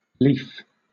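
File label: "lief", British English; pronunciations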